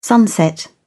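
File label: "sunset", English, uk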